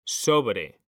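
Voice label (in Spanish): "sobre", male; mekanta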